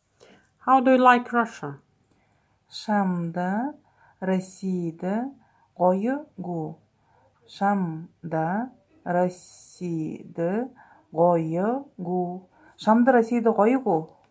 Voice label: How do you like Russia, Buryat